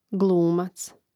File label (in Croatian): "glumac", hrvatski; mreznik